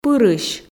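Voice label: "пырысь", Komi, female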